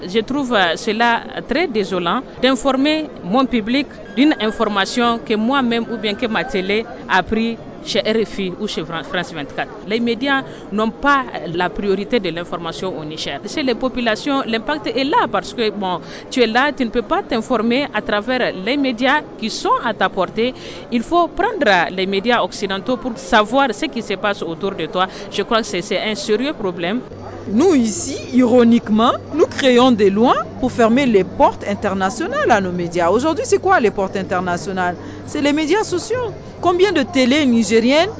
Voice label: FR VOX POP; JOURNEE INTERNATIONALE ACCES INFORMATION